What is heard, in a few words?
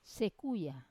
"sekuya" they are noisy, Wamesa